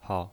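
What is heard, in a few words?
"hao", Mandarin Chinese, neutral tone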